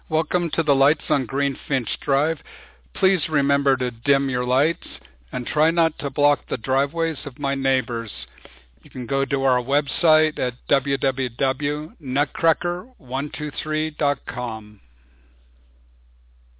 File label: announcement; nutcracker